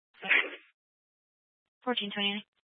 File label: Fire Department Dispatch, scanner